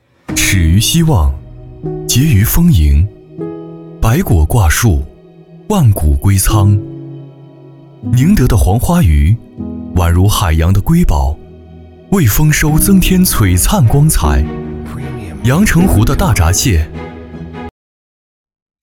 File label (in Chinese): 男, 宣传片, 自然; 始于希望